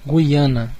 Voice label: "guyana", pt BR; gcompris; geography